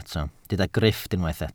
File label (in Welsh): "grifft", Welsh